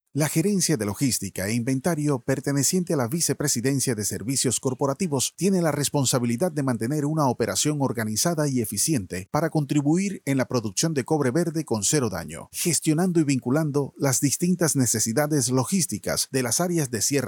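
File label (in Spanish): Corporativo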